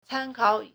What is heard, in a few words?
"can1kao3", Chinese